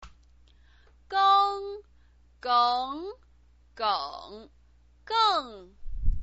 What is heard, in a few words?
"geng", chinese pinyin